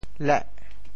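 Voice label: "leh8", Teochew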